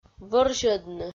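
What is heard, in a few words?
"вӧрзьӧдны", Komi, female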